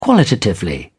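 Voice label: "qualitatively", English, British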